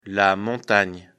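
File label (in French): "montagne", French; afrikalan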